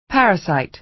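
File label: "parasite", American English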